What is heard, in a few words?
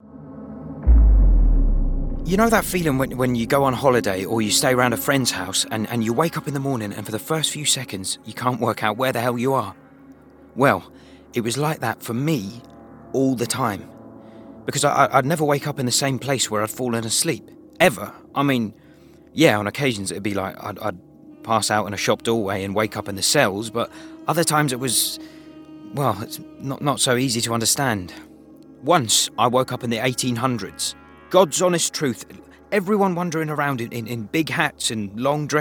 Radio Drama; 20s - 30s. Male. Scottish. Studio.